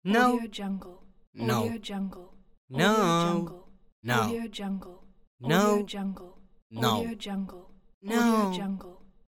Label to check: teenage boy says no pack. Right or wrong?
Right